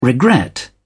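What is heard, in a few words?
"regret", British English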